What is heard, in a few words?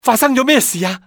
"whats happen", male; XBOX; civ